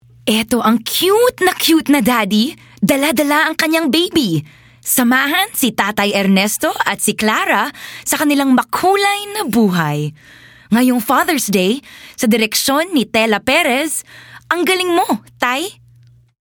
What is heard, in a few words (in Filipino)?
Tagalog, expressive, dramatic